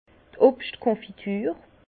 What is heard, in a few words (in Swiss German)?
"obscht konfitur", Bas Rhin; vin; confiture de fruits